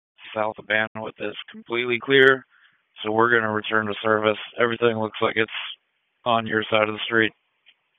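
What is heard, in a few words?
FIRE Operations, p25; wake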